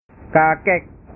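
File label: "kakek", Indonesian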